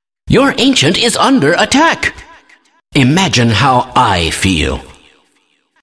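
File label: announcer ancient attack your; Kleiner announcer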